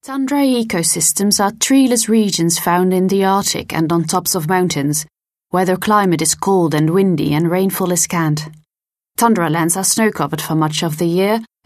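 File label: Dutch, English, european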